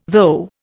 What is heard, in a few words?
"though", Indian